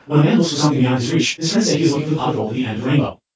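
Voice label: male speech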